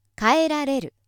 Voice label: female